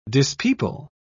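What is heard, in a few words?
"dispeople", English, British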